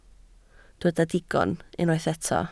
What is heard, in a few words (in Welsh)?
"digon", Welsh